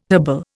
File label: "herbal", Indian